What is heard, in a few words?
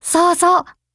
AGREE JP; ColtSCW